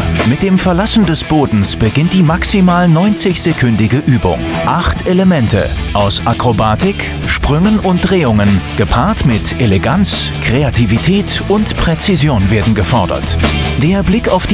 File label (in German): erklarvideo schwebebalken